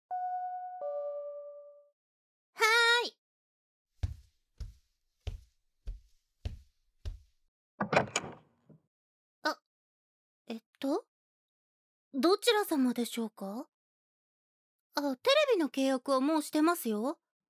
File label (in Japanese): 本編（SEあり）, ASMR; 催眠強制絶頂; 適当にインターホン押して出てきた女の子を催眠絶頂; アヘ顔・オホ声・屈辱たっぷり!